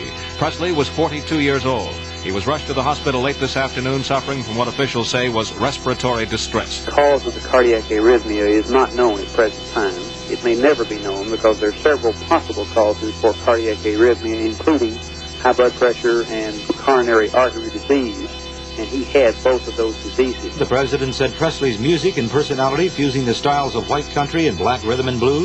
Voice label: JINGLES NEWS BLURB; (Elvis Dies) 70s